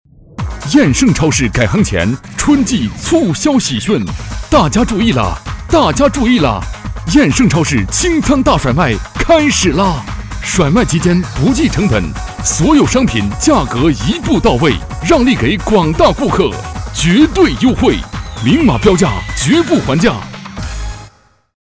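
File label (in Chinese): 男国大音-促销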